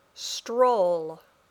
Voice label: "stroll", English; The City